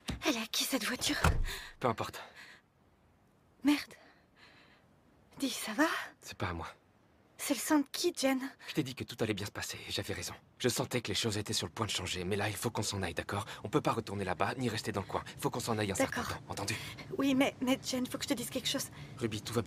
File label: DUBBING; voice-talent; All creatures here bellow